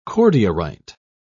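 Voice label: "cordierite", British English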